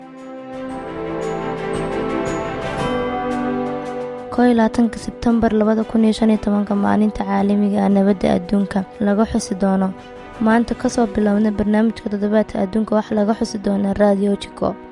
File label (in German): jingle somalisch; Jingle Weltfriedenswoche Somalisch